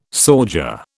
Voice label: "sauger", British

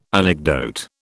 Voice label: "anecdote", British

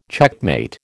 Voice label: "checkmate", American

